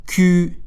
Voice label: French alphabet q

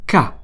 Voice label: French alphabet k

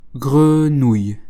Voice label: "Grenouille", French

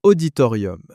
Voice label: "Auditorium", French

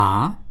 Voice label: French alphabet a

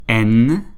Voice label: fr alphabet n

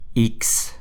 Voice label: French alphabet x

